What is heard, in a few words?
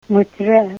inside, Ninilchik Russian; wnutré prep. inside, indoors.; locations